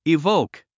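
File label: "evoke", English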